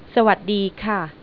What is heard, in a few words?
sawadee kaa, Thai